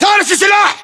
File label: inform reloading generic, english battlechatter